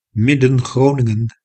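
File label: "Midden-Groningen", Dutch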